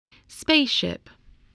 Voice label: "spaceship", voice spelling